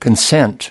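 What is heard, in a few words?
"consent", US English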